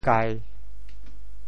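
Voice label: "kai1", Teochew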